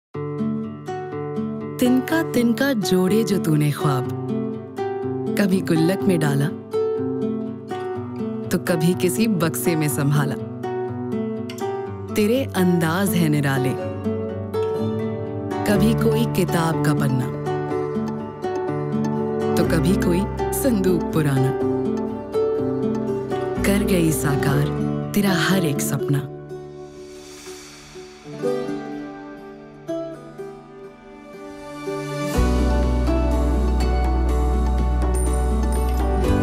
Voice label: TVC hindi